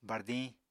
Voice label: "bardiñ", Apatani